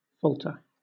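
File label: "falter", British English; pronunciations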